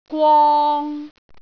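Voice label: "gwong3", Cantonese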